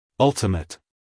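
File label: "ultimate", American English, male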